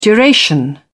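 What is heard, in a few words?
"duration", British English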